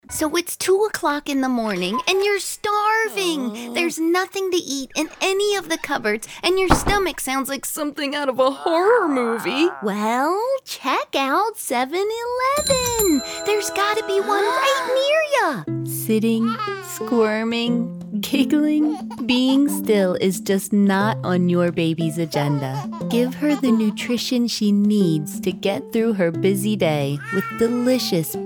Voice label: Commercial, Voiceover